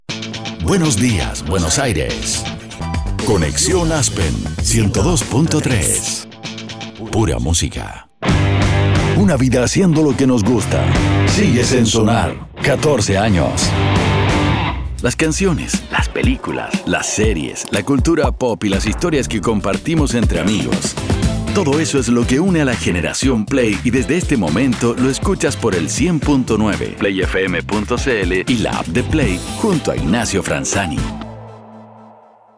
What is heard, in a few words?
spanish latin american radio imaging